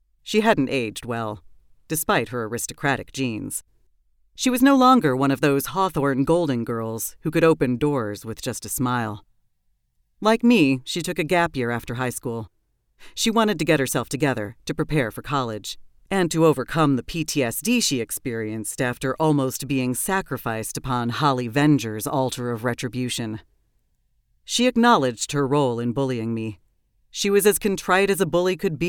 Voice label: First Person Exposition; Bitter First Person Narrative

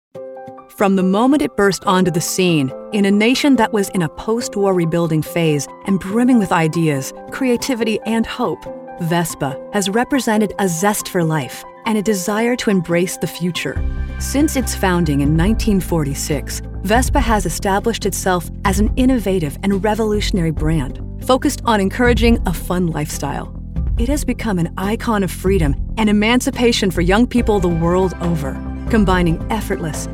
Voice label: CORPORATE

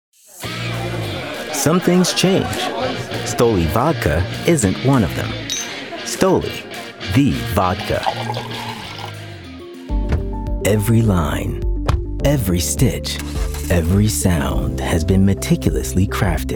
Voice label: Commercial

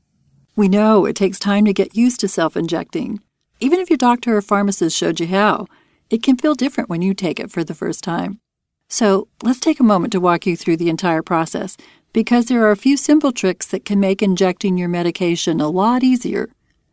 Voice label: Injections Reassuring

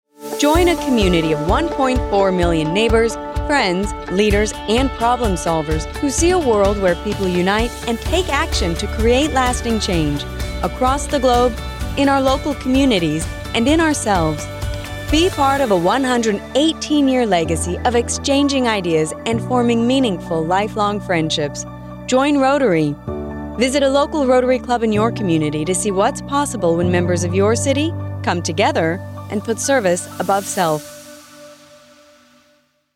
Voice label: Inspiring; Rotary

